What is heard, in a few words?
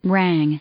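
"rang", English, female; verb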